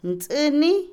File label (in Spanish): hamaca, Otomí, mujer; Casa; INALI